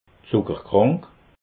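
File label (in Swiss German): "zuckerkrank", Alsatian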